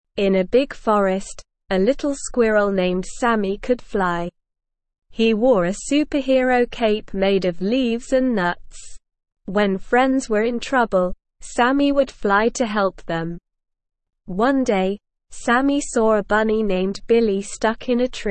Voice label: ESL Short Stories for Kids Beginner SLOW Reading; The Superhero Squirrel